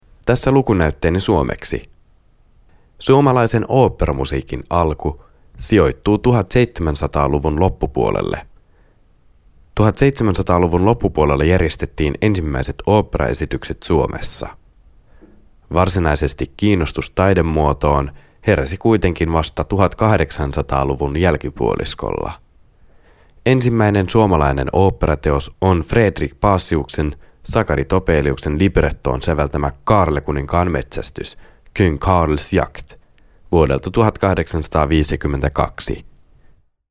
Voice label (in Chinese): 芬兰语男 外语 小语种